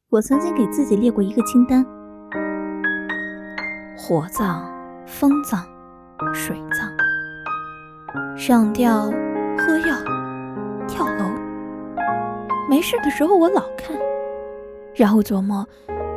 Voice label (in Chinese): 抒情类救赎